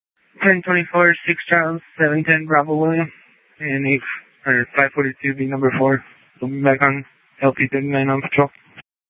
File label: Sheriff, scanner